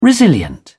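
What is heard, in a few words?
"resilient", British English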